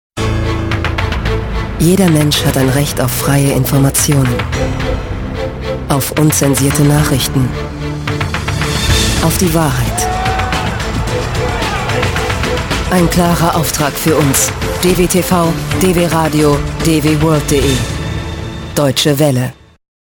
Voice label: Trailer